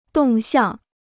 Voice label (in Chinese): "动向", Chinese